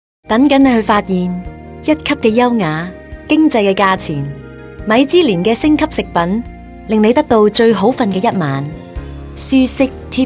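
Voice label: Cantonese Megamix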